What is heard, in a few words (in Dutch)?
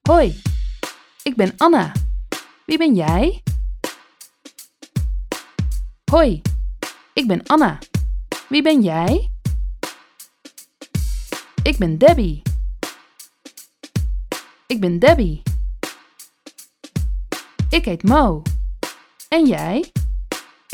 Taalriedel 80bpm; SPREEKTAAL Basis